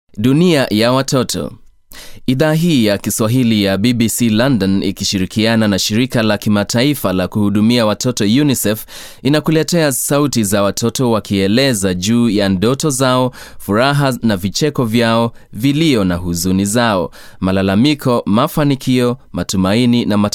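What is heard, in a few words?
Swahili male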